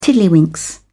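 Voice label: "tiddlywinks", English, British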